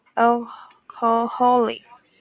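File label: "alcoholic", Taiwanese, female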